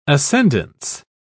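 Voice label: "ascendance", British English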